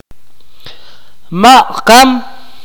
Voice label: "kill", Akateko

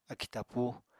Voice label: "akì tapúu", Apatani

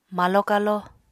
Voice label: "malo-kalo", Apatani

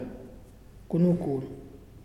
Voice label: Hair, Muyuw; Lae Unitech

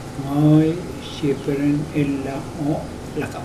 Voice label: I will not go there tomorrow, Mokilese

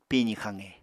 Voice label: "pizi xaqe", apatani

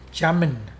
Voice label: not clean, Mokilese

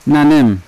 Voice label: "nanem" hand, Yawa

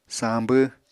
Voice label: "sañbw", Apatani

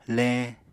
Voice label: "leñ", Apatani

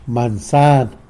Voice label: "mansan", tlacolula; apple

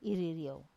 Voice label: "iririau", I am married, Wamesa

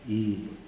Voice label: "this", kuman

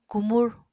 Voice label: "kumur", Apatani

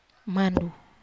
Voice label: "mandu", Wamesa

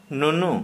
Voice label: "Breast", Santali

